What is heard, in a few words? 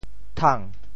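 "thang2", Teochew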